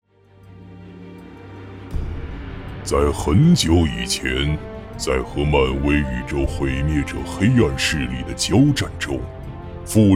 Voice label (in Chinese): 男 角色; 擎天柱